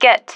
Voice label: "get", female

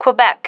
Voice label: "quebec", female

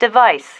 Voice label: "device", female

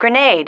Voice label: "grenade", female

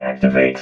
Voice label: "activate", robot vox

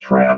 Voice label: "trap", robot

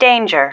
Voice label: "danger", female

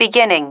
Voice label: "beginning", female

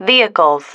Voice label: "vehicles", female

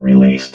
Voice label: "released", robot

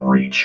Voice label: "breach", robot vox

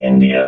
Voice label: "india", robot

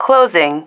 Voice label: "closing", female

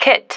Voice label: "kit", female

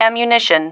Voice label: "ammunition", female

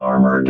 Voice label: armoured, robot

